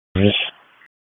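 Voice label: EMS Dispatch, p25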